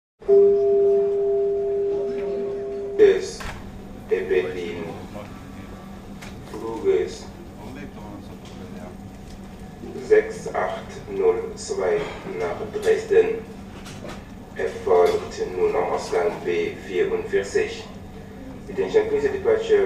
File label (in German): des Fluges nach Dresden, Flughafen Ansagen